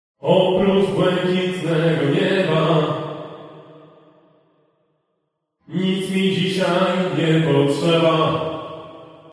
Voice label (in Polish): glos reverb